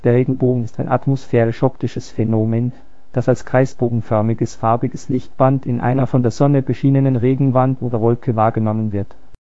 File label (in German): speaker, de DE; piper-voices